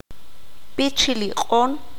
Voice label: "Vuelta de gato", Q'anjob'al